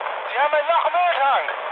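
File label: German damaged oil mood med, speech; War Thunder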